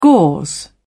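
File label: "gauze", British English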